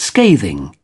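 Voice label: "scathing", British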